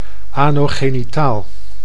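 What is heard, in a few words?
"anogenitaal", Dutch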